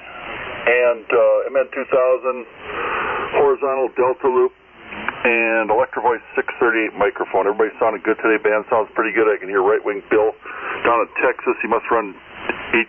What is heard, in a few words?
Drake C-Line & Drake L4-B Amp; Colgate, Wi.